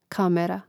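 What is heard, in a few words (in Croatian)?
"kamera", Hrvatski; mreznik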